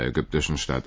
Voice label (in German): ägyptischen Stadt, deaccented nouns; dirndl adjective noun